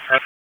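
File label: Raleigh Police North District Tactical, p25; wake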